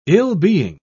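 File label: "ill-being", English, British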